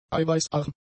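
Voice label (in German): "eiweißarm", german; adjectives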